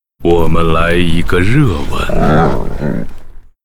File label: Hero far seer pissed zh